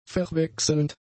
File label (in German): "verwechselnd", German; adjectives